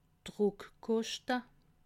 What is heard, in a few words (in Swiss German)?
"druckkoschte", alsace